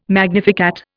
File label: "magnificat", Indian